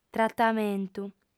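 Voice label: "tratamentu", female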